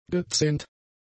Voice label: "gezähnt", German; adjectives